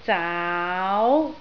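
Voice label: "zaau2" Cantonese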